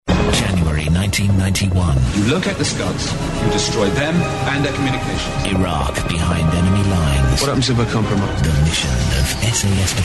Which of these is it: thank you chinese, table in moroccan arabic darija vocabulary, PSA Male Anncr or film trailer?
film trailer